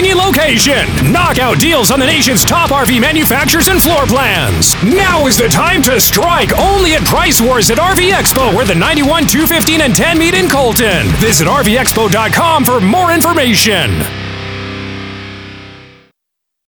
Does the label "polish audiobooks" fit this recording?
No